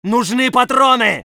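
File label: voice soviet infantry; Red Orchestra 41-45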